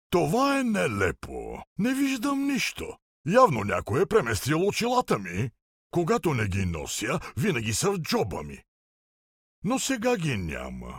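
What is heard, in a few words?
bulgarian male character; voice demos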